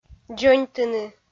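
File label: "дзоньтыны", Komi, female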